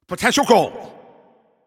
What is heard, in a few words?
Shot On Goal, Announcer